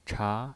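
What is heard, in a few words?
"cha", Mandarin Chinese, second tone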